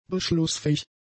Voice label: "beschlussfähig", German; adjectives

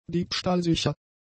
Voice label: "diebstahlsicher", german; adjectives

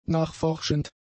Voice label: "nachforschend", German; adjectives

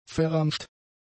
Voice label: "verramscht", german; adjectives

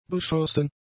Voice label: "beschossen", German; adjectives

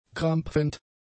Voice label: "krampfend", German; adjectives

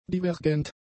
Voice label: "divergent", German; adjectives

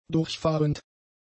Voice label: "durchfahrend", German; adjectives

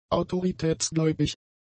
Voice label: "autoritätsgläubig", German; adjectives

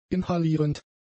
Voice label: "inhalierend", German; adjectives

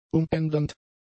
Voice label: "umändernd", German; adjectives

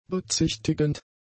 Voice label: "bezichtigend", German; adjectives

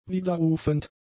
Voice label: "widerrufend", German; adjectives

